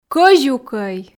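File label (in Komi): "кӧзюкӧй", Komi, female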